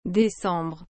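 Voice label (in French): "décembre", French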